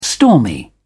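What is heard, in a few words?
"stormy", British English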